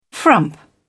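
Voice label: "frump", British English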